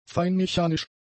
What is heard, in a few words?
"feinmechanisch", german; adjectives